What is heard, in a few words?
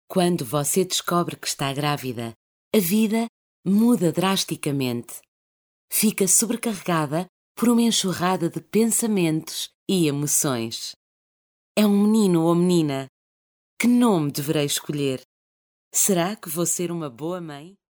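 Portuguese, female, narration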